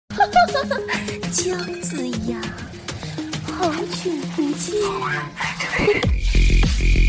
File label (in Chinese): 御姐音